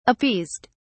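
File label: "appeased", British English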